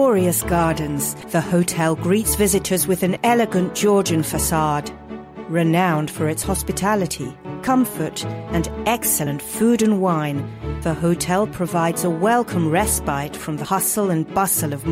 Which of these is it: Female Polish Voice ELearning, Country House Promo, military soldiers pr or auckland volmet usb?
Country House Promo